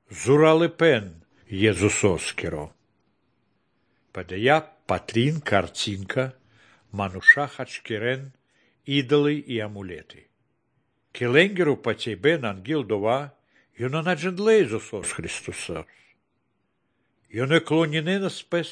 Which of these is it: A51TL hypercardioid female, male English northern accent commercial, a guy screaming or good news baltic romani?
good news baltic romani